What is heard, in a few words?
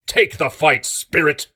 Voices Phrase Hi